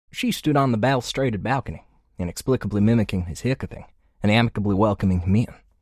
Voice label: American Texas Young